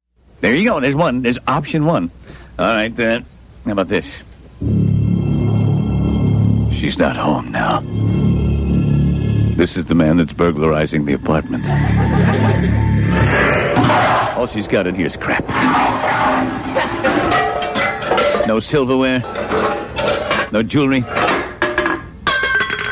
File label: Answering Machine Message